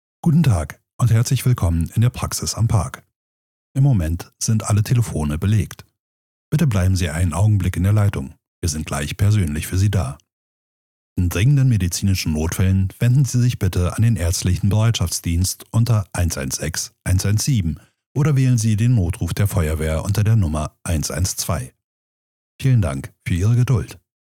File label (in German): Telefonansage Sprachdatei; Sprecherprofil